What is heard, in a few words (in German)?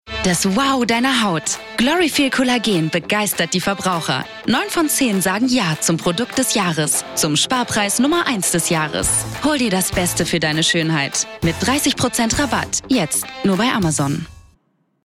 Werbung; GloryfeelCollagen; Sprecherin